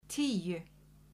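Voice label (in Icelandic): "tíu", Icelandic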